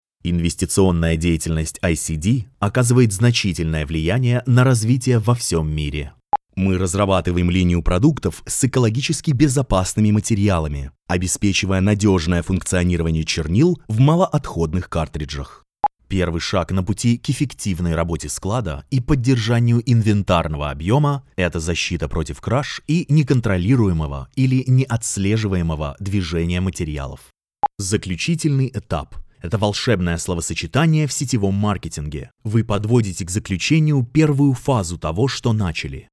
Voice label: Narration Audio Book Documentarie, Russian Voice Over